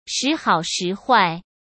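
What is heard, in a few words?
"时好时坏", Mandarin Chinese; hsk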